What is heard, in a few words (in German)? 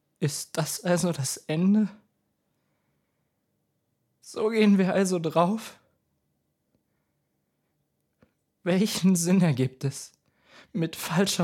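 Soldat, hoffnungsvoll / verzweifelt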